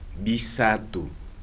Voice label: "three", Kibembe